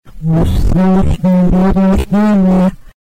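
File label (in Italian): "vuò sale", Italian dialect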